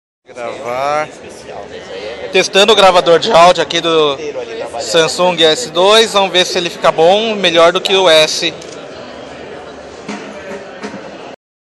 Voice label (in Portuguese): Gravador Voz Samsung S II